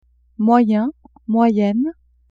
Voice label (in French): "moyen moyenne", French; vetements